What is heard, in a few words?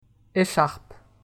"echarpe", French